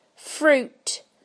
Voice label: "fruit", UK; PictureDictionary